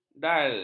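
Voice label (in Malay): "dal", Malay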